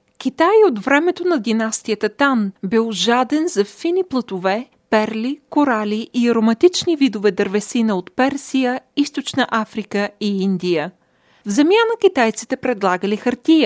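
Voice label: Bulgarian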